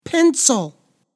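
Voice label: "pencil", English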